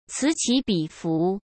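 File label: "此起彼伏", Mandarin Chinese; chinese flashcards; hsk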